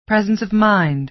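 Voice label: "presence of mind", American English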